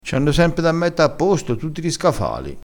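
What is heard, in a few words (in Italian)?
"scafale", dialetto crespinese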